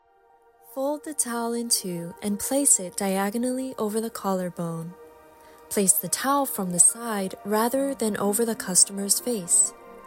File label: Spa Instructions